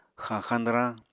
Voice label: "xañxañ dwrañ", Apatani